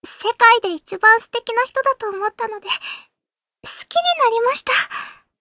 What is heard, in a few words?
game voice